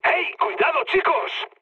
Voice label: near waypoint, mood med, speech; War Thunder